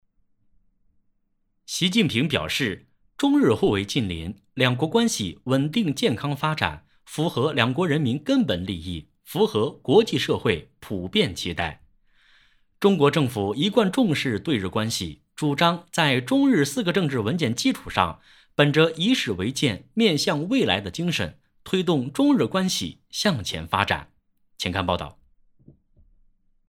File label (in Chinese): 男 新闻 新闻播报